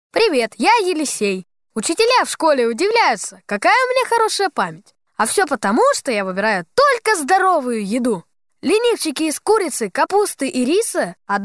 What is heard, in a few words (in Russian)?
reklama